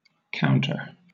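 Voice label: "counter", British English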